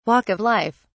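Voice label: "walk of life", British English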